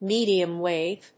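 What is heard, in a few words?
"medium wave", English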